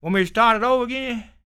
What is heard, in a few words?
SPOKEN, Blues Soul, TALK; Spectrasonics; Vocal Planet; EL SPOKEN